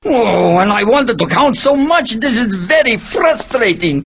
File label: count frustrating